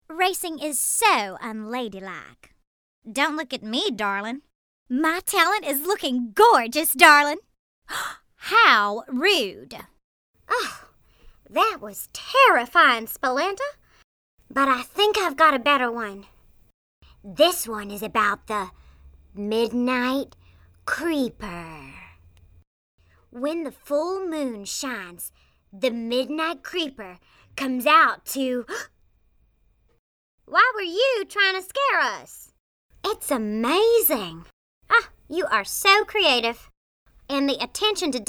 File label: Character Voice Reel; American; Sound Bites